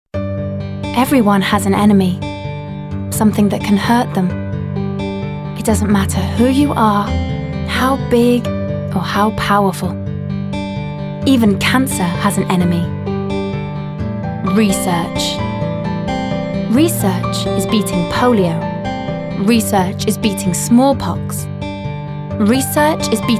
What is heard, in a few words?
female english charity appeal